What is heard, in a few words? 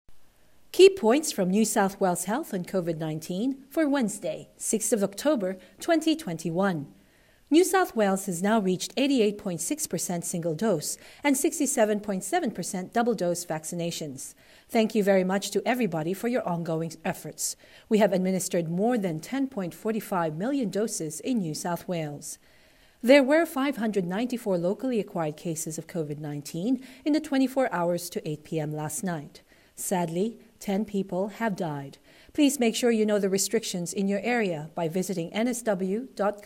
english